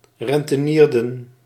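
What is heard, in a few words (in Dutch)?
"rentenierden", Dutch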